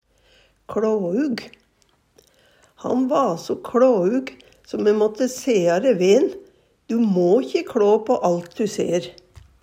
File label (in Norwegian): kLåug, Numedalsmål; Han va so kLåug, so me måtte sea ræ ve'n: "Du må 'kje kLå på alt du ser".